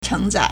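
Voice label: "chéngzài", Chinese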